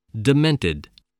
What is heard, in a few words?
"demented", English